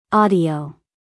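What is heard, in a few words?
"audio", American English, female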